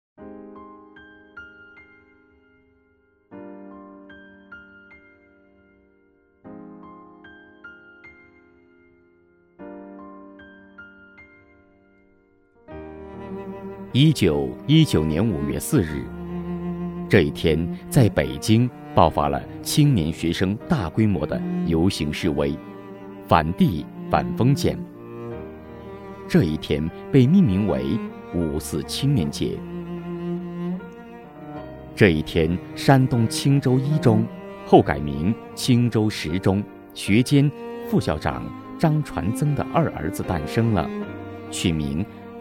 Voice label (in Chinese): 男 纪录片-回忆录（人文地理）